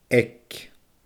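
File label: "aig", Scottish Gaelic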